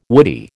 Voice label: "woody", American

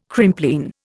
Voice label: "Crimplene", Indian

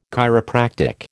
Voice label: "chiropractic", American

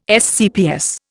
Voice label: "SCPS", Indian

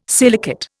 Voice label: "silicate", Indian

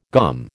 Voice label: "GOM", American

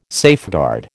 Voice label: "safeguard", American

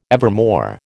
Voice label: "evermore", American

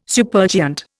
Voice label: "supergiant", Indian

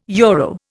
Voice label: "euro", Indian

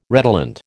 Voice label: "redolent", American

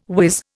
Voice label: "wiz", Indian

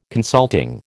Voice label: "consulting", American

